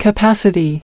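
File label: "Capacity", British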